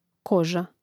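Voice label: "koža", Croatian; Mrežnik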